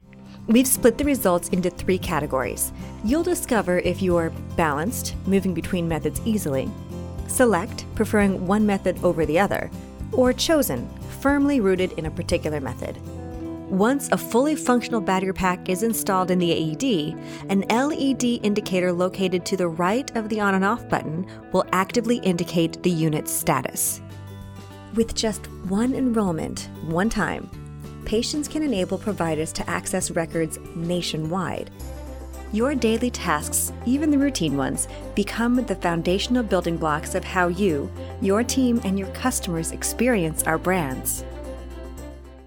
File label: Explainer eLearning